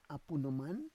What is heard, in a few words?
"apu noman", Roon